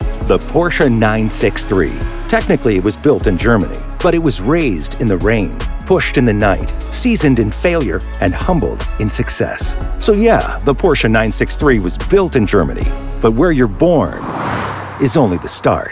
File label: commercial; porche